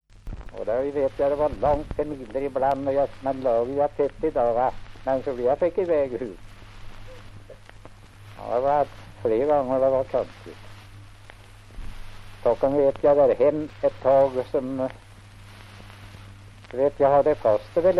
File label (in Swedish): Sunnemo, dialekter; Folkminnen